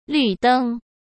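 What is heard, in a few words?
"绿灯", Mandarin Chinese; chinese-flashcards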